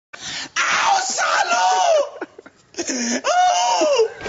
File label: funny dialogue